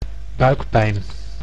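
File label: "buikpijn", Dutch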